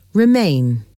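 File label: "remain", English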